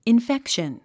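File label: "infection", English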